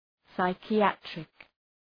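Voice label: "psychiatric", English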